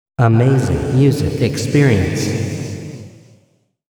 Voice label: wacky vocal; quikquak; rayspace